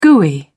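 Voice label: "gooey", British English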